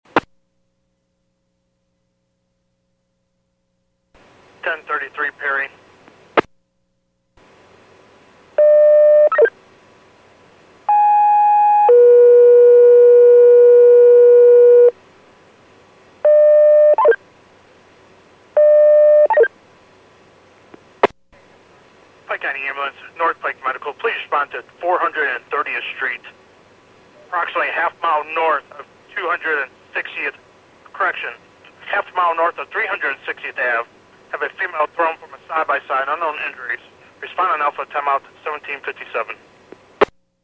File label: PAGING